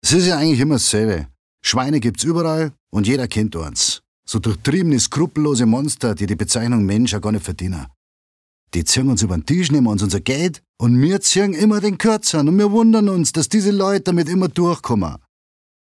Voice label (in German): Dialekt Bayerisch